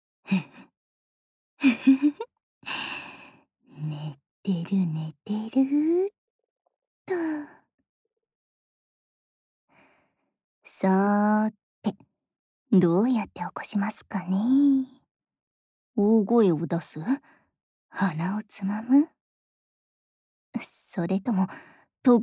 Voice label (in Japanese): LOVE Whisper こうはい, ASMR